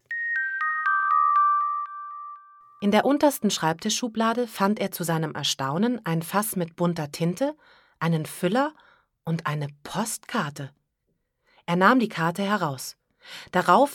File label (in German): Sprecherdemo Hörbuch; Ammerlo